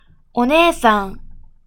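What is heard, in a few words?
"お姉さん", Japanese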